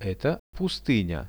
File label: "eto pustynia", Russian; Это пустыня; nature; priroda; RUSSIAN VISUAL DICTIONARY